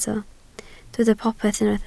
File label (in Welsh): "popeth", Welsh